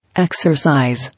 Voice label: "Exercise", English

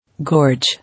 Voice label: "Gorge", English

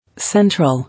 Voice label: "Central", English

